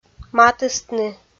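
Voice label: "матыстны", Komi, female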